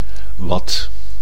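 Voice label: "wat", Dutch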